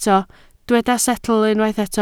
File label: "setl", Welsh